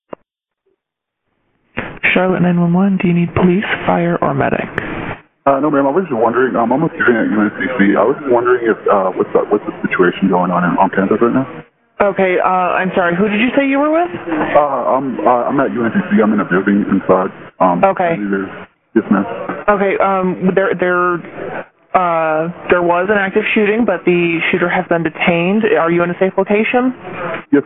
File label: 911 call; 81 Craver Rd